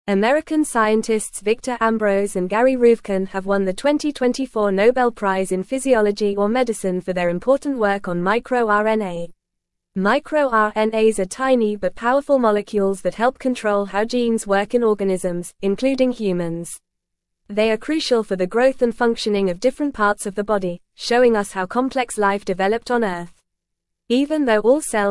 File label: English Newsroom Upper Intermediate FAST Reading; 2024 Nobel Prize MicroRNAs Role in Gene Regulation